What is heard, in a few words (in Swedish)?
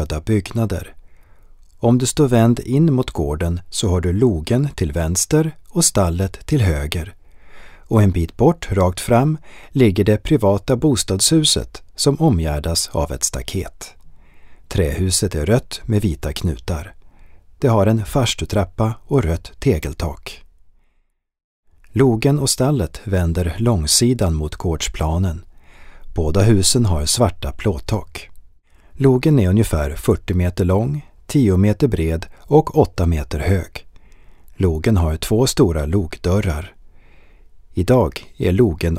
syntolkning; bogsgard